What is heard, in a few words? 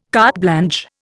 "carte blanche", Indian